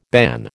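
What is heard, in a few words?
"ban", American English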